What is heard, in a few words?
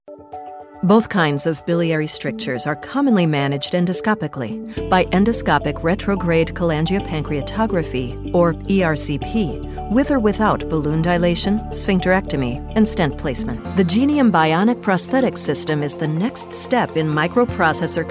Medical narration